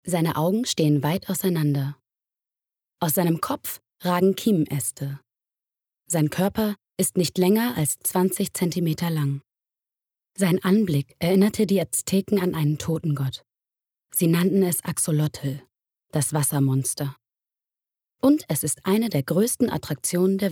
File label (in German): Dokumentarischer Text